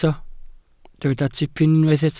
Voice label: "tipyn", Welsh

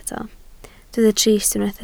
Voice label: "trist", Welsh

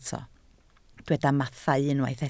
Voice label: "mathau", Welsh

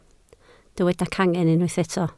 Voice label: "cangen", Welsh

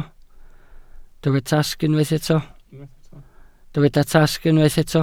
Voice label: "tasg", Welsh

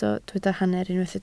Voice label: "hanner", Welsh

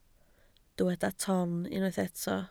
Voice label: "ton", Welsh

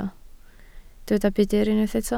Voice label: "budr", Welsh

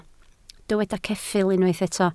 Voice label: "ceffyl", Welsh

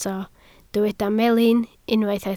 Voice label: "melin", Welsh

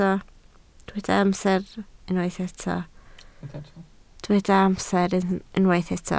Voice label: "amser", Welsh

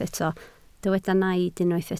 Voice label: "naid", Welsh

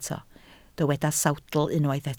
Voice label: "sawdl", Welsh

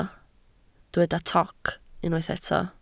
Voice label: "toc", Welsh

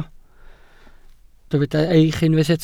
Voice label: "eich", Welsh

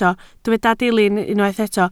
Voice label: "dilyn", Welsh

